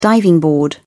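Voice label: "diving board", British English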